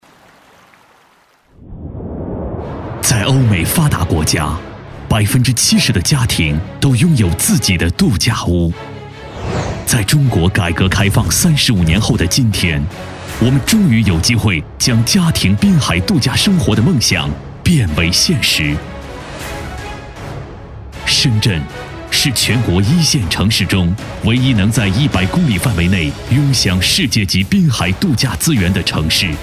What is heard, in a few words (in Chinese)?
宣传片 地产, 男; 公园城邦